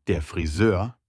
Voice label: hairdresser in german